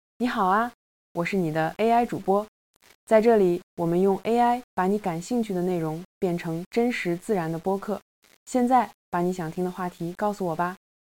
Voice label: chat girl cn